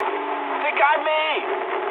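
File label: aircraft destroyed mood high, speech English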